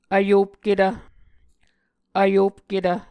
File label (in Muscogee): "vyopketv", Muscogee